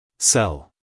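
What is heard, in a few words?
"sell", American English male; everyone-can-use-english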